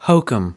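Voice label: "hokum", American English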